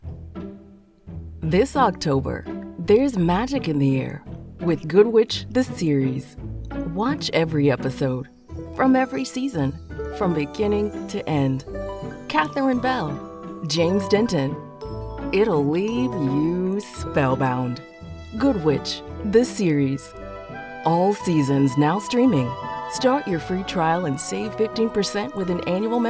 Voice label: english american promos